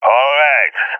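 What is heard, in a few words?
affirmation, voice, agents, balkan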